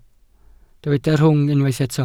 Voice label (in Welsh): "rhwng", Welsh